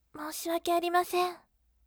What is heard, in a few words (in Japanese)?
voice moushiwake arimasen akarume